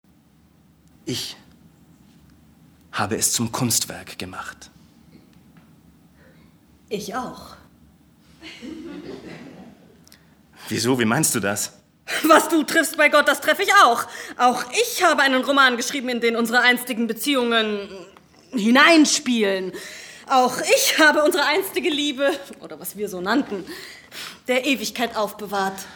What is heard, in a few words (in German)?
sprecherin